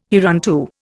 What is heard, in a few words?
"hereunto", Indian